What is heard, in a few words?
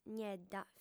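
"niedda" Sardinian, female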